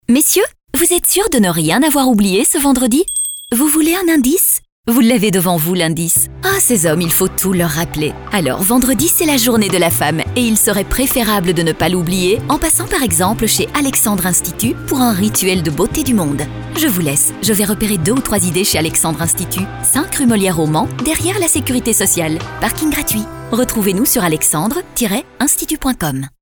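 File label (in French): spot, spots radio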